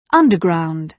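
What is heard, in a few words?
"underground", British English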